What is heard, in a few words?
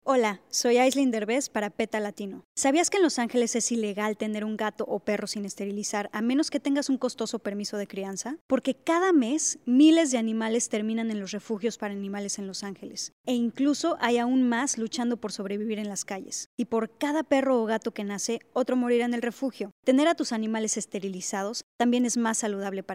radio psa spanish; aislinn derbez; angels for animals; peta latino